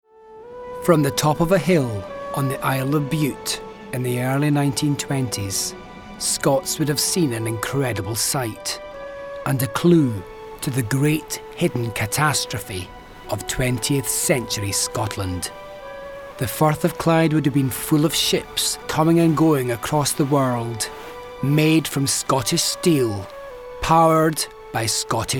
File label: DOCUMENTARY